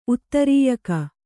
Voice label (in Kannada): "ಉತ್ತರೀಯಕ", Kannada